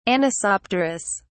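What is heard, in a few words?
"anisopterous", British English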